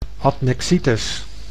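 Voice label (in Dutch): "adnexitis", Dutch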